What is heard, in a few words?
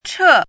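"che", Mandarin Chinese, fourth tone; pinyin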